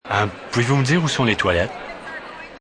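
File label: French Bathroom ambience, Male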